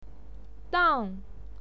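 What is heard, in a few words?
"dang", Mandarin Chinese, fourth tone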